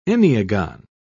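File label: "enneagon", British English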